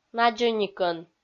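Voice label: "надзӧникӧн", Komi, female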